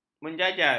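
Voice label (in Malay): "menjajal", Malay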